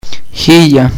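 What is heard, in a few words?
"Thousand", Greek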